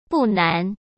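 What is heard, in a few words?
"不难", Mandarin Chinese; chinese-flashcards; hsk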